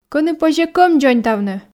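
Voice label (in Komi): кӧні позьӧ кӧм дзоньтавны, Komi, female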